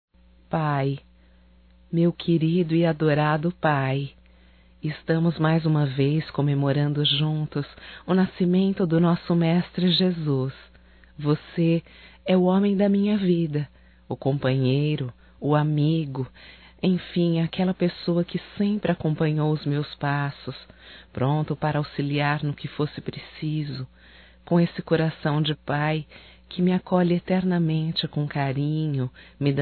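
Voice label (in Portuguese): NATAL PAI, feminino; Telemensagens 2017; Natal & Ano Novo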